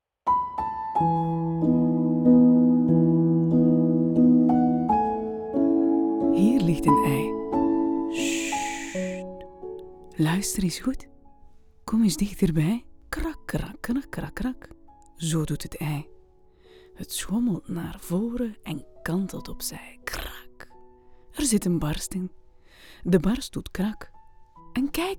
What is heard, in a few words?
flemish audiobooks